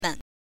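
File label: "men", Mandarin Chinese, neutral tone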